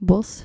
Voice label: "bos", Dutch

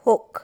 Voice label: "hook", english

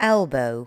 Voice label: "elbow", english

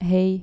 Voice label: "hay" dutch

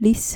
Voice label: "lies", Dutch

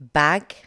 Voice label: "bag", english

